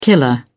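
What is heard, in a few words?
"killer", British English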